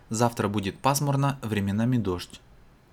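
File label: "pasmurno", Russian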